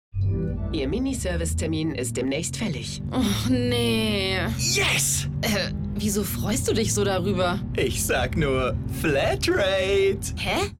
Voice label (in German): Werbung; Mini